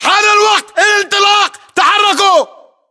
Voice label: order move generic, battle chatter, english